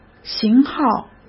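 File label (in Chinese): "型号", Mandarin Chinese; hsk; chinese flashcards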